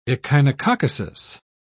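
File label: "echinococcosis", British English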